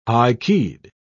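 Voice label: "high-keyed", English, British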